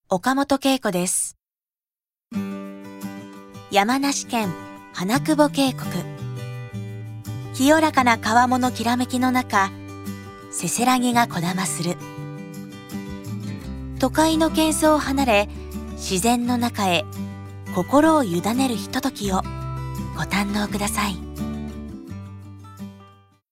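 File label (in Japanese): narration ソフト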